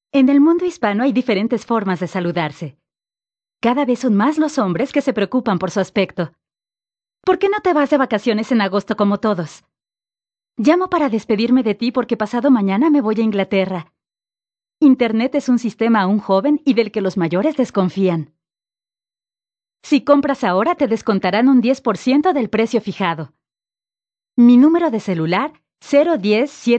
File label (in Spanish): Spanish South America, F